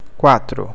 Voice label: "quatro", Portuguese